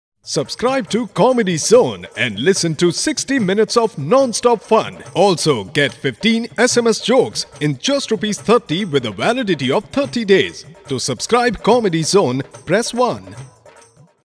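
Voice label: English Indian Male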